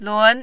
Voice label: "lun2", mandarin